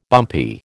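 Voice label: "bumpy", American English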